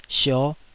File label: "sio5", Taiwanese syllable